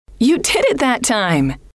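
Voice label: you Did It That Time, female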